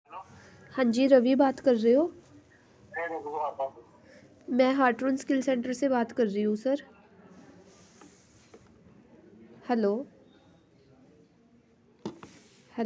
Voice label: call recording